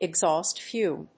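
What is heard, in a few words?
"exhaust fume", English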